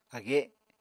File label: "age", Apatani